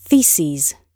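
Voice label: "theses", British English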